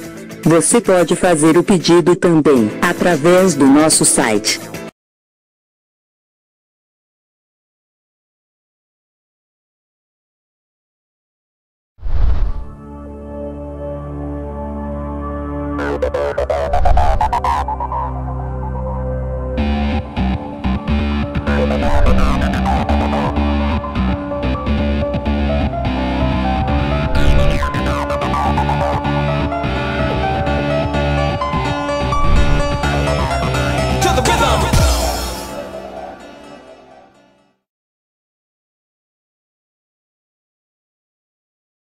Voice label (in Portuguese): TELEMENSAGEM CONSELHO PARA ADOLESCENTE REBELDE, Fem; duBroncas